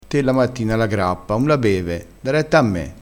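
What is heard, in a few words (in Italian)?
"beve", vocabolario dialettale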